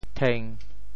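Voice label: "theng1", Teochew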